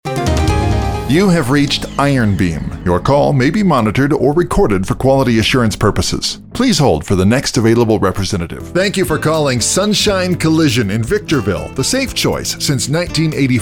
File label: Phone